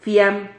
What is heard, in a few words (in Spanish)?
"fiam", Silabas